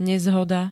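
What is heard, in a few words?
"nezhoda", Slovak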